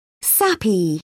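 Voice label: "sappy", British English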